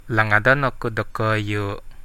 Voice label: i miss you, central dusun